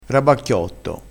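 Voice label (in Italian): "rabacchi", vocabolario dialettale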